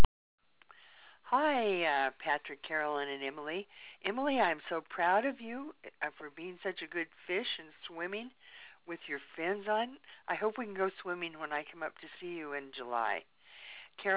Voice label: voice mail